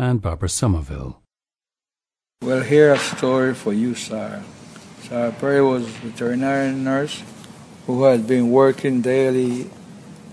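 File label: costa rica, International Dialects of English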